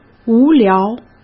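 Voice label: "无聊", Mandarin Chinese; chinese-flashcards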